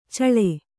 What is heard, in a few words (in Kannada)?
"ಚೆಳ್ಳೆ", Kannada